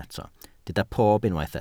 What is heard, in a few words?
"pob", Welsh